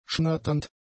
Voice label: "schnatternd", German; adjectives